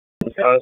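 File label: Police Dispatch, p25; Raleigh; Northeast District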